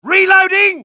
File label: reload, dialogue UK; mohaa